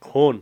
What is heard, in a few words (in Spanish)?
"niño", Cucapá; Parentesco